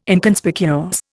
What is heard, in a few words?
"Inconspicuous", Indian